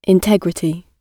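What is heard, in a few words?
"integrity", British English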